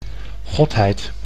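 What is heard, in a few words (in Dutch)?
"godheid", Dutch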